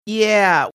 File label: drawn out yeah